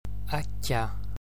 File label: slender c, gaelic